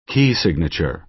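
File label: "key signature", American English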